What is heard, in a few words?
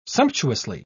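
"sumptuously", British English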